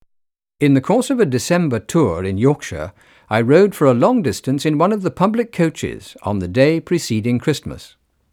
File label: male speech english; OmniPower4296